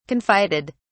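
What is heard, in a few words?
"confided", British English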